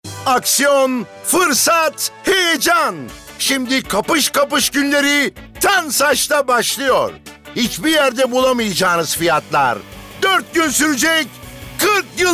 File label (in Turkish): Promosyon